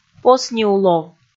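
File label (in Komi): "посниулов" Komi, female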